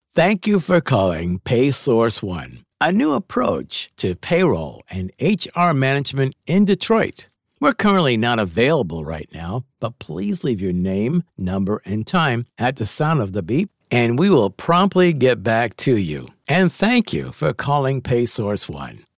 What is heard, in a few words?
PHONE GREETING; PAYSOURCE ONE